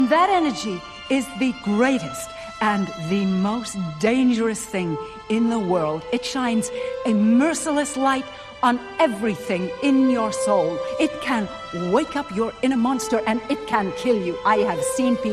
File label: film dialogue; Muttersprache Englisch